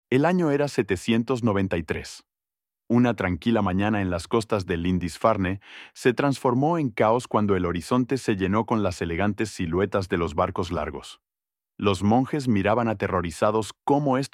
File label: Practice your Spanish speaking skills